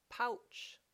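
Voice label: "pouch", English word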